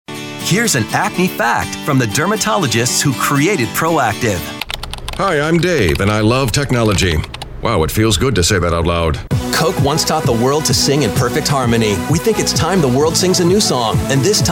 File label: Commercial